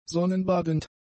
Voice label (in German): "sonnenbadend", german; adjectives